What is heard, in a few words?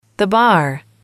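"bar", female, English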